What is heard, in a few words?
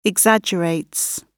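"exaggerates", British English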